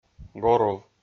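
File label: "горув", Komi, male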